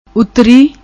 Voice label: "Uttri", Punjabi; ਉੱਤਰੀ